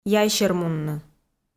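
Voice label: "яйсермунны", Komi-Zyrian, female; kpv audio dict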